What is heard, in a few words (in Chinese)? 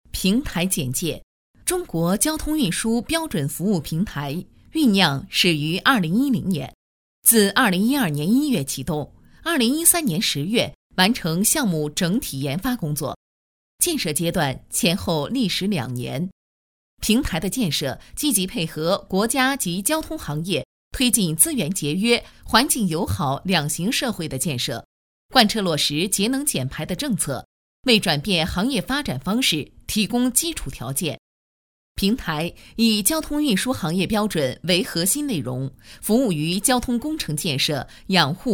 多媒体 培训课件 交通网络